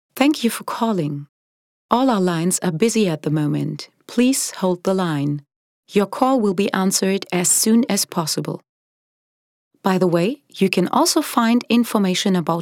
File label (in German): telefonpaket englisch